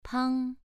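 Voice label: "pang", tone 2, pronunciation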